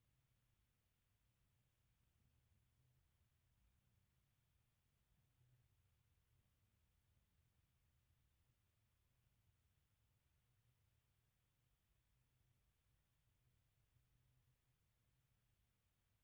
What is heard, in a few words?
french directions part b